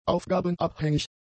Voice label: "aufgabenabhängig", German; adjectives